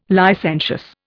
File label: "licentious", Indian